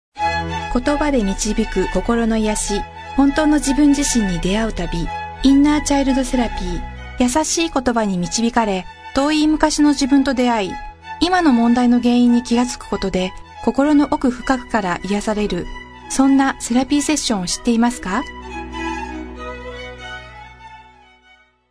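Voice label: Japanese female voice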